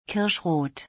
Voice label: Cherry color in German